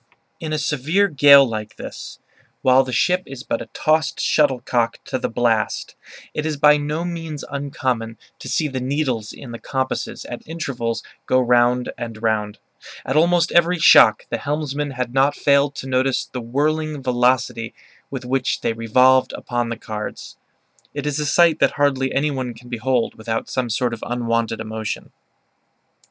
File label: US English broadband sample; watson stt; bluemix